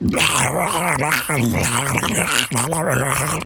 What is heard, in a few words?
Zombie Idle